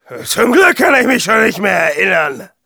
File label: jeers; Demoman